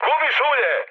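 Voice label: Serbian damaged oil mood high; speech; War Thunder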